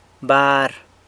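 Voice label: "bar", stick, San Lucas Quiaviní Zapotec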